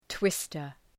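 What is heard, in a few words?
"twister", English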